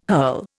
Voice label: "hurl", Indian

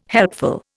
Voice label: "helpful", Indian

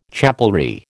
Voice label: "chapelry", American

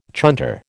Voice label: "chunter", American

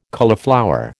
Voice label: "cauliflower", American

